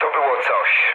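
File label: trick mood low, speech Polish; War Thunder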